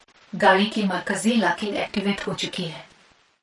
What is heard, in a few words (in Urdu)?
Tesla Lock Urdu Woman